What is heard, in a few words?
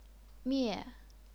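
"mie", fourth tone, chinese pinyin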